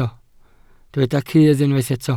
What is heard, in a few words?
"cudd", Welsh